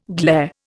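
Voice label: "glam", Indian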